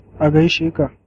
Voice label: hello, hausa